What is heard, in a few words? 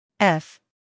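"f", American English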